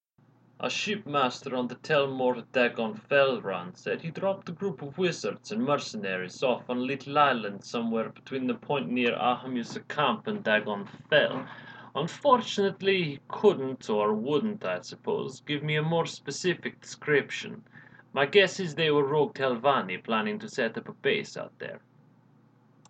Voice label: sample lines, nord voice auditions

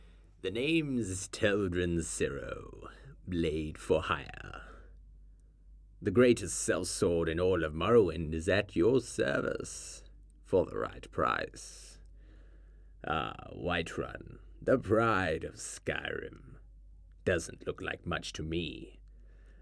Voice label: dark elf, dark elf voice auditions

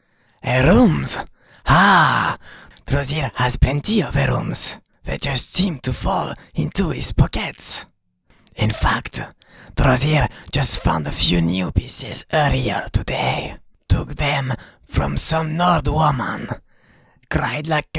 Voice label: male khajiit audition

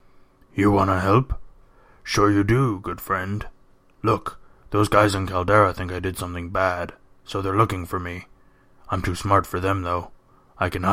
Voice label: orc voice auditions; bugrol gro-bagul

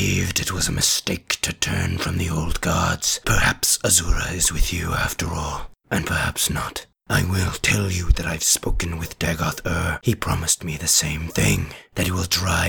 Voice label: male dunmer sample

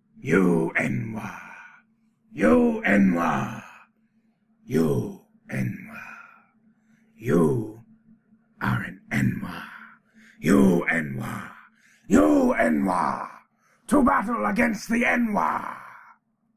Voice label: dark elf voice auditions; nwah

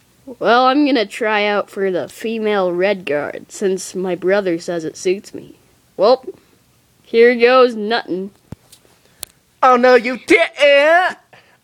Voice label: female redguard, voice auditions

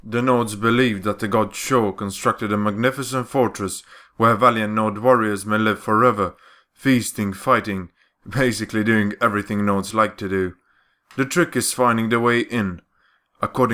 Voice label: breton audition bookseller

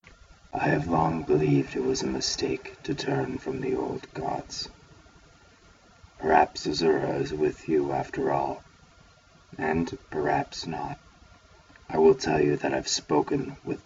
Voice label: dark elf audition, voice acting

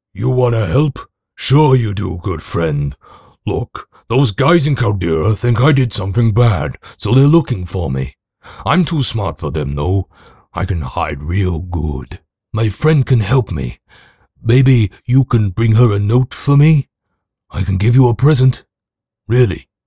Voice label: orc voice sample

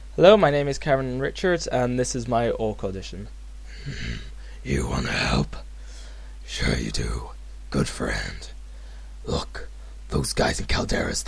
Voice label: orc, orc voice auditions

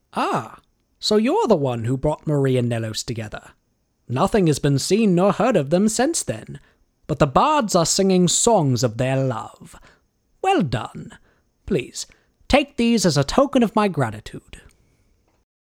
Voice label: breton audition, breton voice auditions

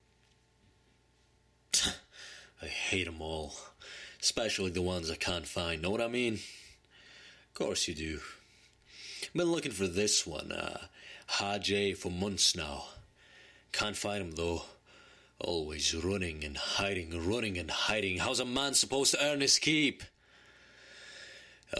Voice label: breton voice north british

